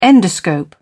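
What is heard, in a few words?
"endoscope", British English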